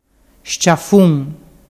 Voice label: "sciaffun", dialettu

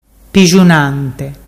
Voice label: pixunante, dialettu

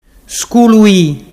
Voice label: "scului", dialettu

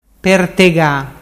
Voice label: pertega, dialettu

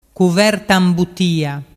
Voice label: "cuverta imbutia", dialettu

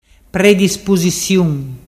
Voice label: predispusisiun, dialettu

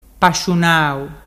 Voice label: pasciunau, dialettu

